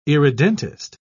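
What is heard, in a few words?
"irredentist", British English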